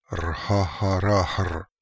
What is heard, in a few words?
"Rhaharahr", pronounciations; Fourth Way; Beelzebub